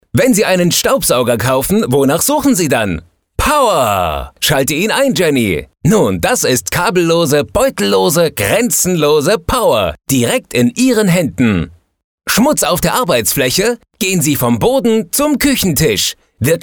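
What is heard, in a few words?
Shopping Channel; LOFT VOICES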